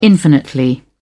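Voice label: "infinitely", British English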